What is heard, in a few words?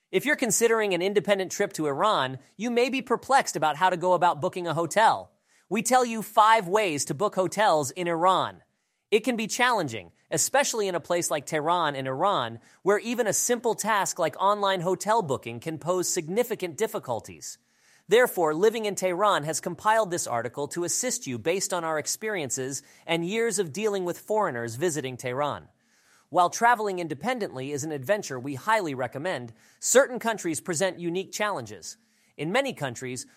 synthesized audio